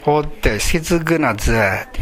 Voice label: "sezuku", minamiyamagata